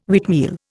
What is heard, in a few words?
"wheatmeal", Indian